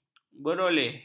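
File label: "beroleh", Malay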